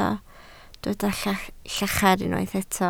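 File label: "llachar" Welsh, spoken word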